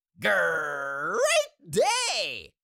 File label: Cartoon little monster voice grrreat day